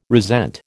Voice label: "resent", American